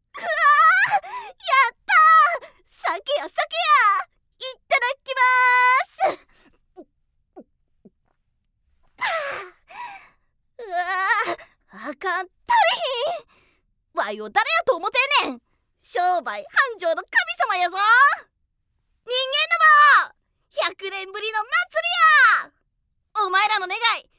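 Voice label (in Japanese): キャラクター 関西弁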